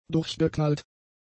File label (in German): "durchgeknallt", German; adjectives